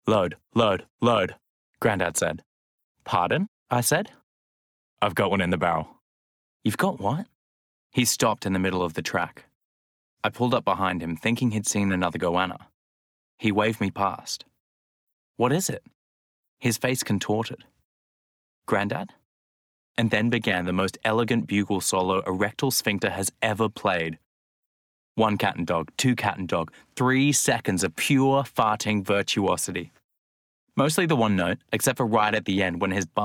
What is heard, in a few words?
Bookread; Changing Gear